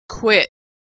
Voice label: verb "quit", pronunciation; Consonant Sound Pair; qu = /kw

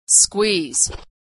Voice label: "squeeze", pronunciation; Consonant Sound Pair

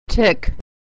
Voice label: "tick", pronunciation

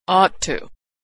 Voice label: "ought to", pronunciation

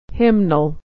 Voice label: "hymnal", pronunciation

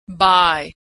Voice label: verb "buy", pronunciation; Homophones; buy / by bye

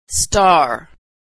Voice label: "star", pronunciation

